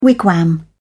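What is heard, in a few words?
"wigwam", British English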